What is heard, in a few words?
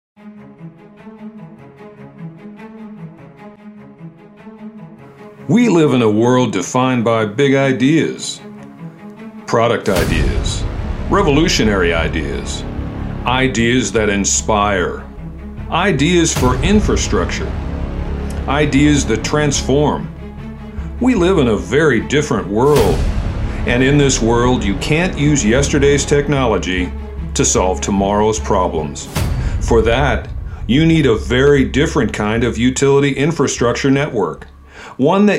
Event Opener with VOG, voiceovers; AclaraConnect-19